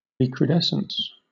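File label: "recrudescence", British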